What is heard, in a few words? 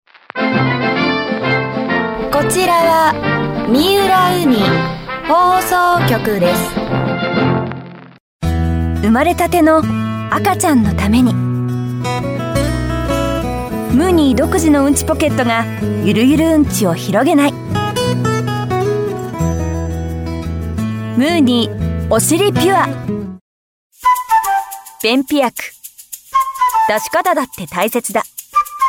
female